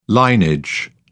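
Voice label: "linage", British English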